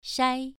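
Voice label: "shai", Mandarin Chinese syllable, second tone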